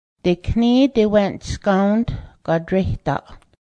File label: bicycle, Cayuga; dekní deweˀni̱hsgaó:t gadréhdaˀ